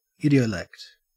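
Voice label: "idiolect", Australian English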